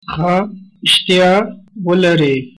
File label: bon appetit, pashto